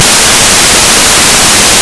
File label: rakata, voices male; Раката